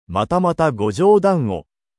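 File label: anime, Japanese, Hilarious Anime Quotes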